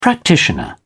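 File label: "practitioner", English, British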